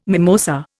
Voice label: "mimosa", Indian